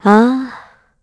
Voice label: Think, kr; Hilda; kingsraid

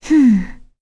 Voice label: Vox Deny, en; Kirze; kingsraid; voices heroes en

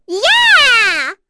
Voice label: Vox Happy; Cecilia; kingsraid

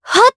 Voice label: Casting, jp; Leo; kingsraid

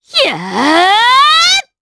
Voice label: Casting, jp; Pansirone; kings raid

